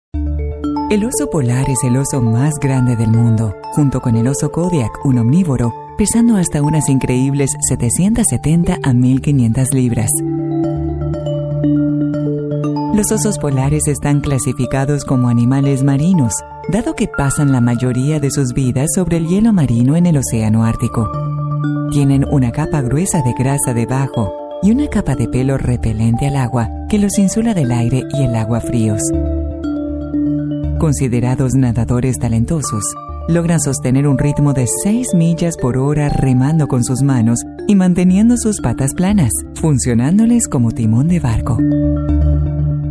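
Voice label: latam female commercial; voice demos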